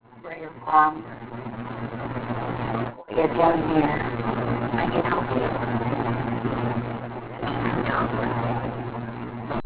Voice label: Girl Voice I Cant Stop This